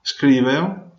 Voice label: write, Venetian

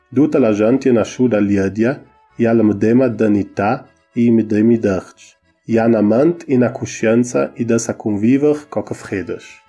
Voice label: Ladin Gardena

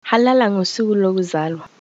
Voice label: birthday zulu

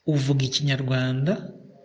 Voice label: do you speak, kinyarwanda; Uvuga Ikinyarwanda?

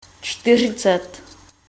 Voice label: "forty", Czech

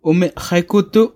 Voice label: do you speak english, serrano

